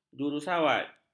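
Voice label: "jurusawat", Malay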